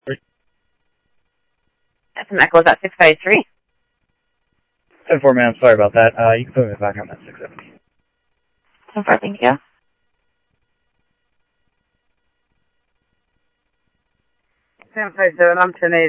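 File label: Sheriff East County, scanner audio trunk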